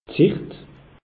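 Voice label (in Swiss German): "sicht", Alsatian; meteo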